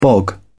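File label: "bog", British English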